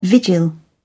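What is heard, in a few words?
"vigil", British English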